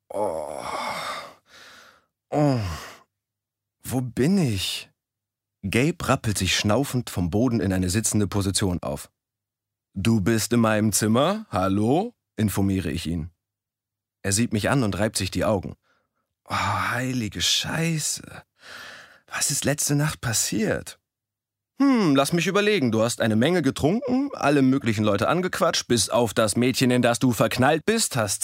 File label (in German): Hoerbuch Jugendroman; Klar Ist Es Liebe